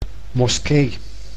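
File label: "moskee", Dutch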